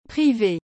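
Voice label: "prive", French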